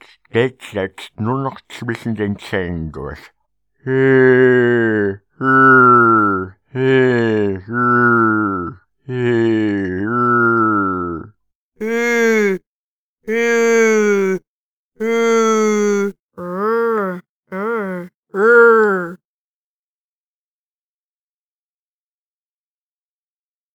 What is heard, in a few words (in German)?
L mit breiter Zunge gesprochen; Buch Oberton Singen CD